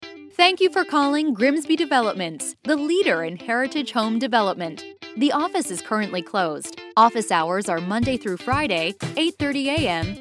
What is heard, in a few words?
ivr